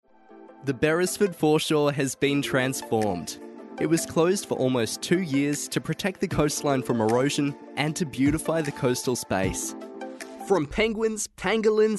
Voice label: english australian narration